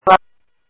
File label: Police, scanner audio